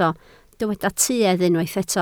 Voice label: "tuedd", Welsh